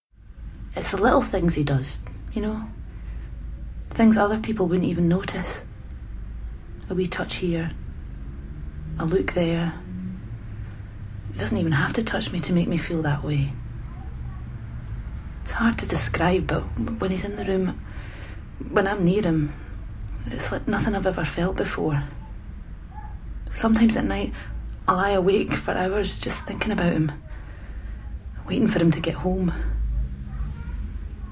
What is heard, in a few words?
Commercial Domestic Violence